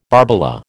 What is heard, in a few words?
"barbola", American